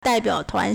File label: "dai4 biao3 tuan2", Chinese